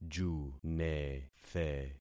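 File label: "junethe", concatenated syllables; Affixing experiment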